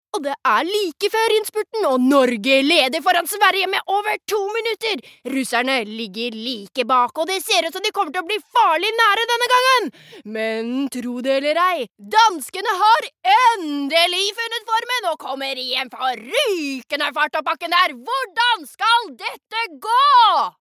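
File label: Norwegian dub commentator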